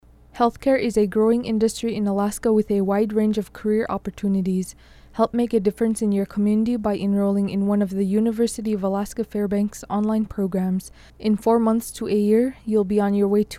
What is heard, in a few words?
Allied Health Ad